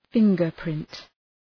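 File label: "fingerprint", English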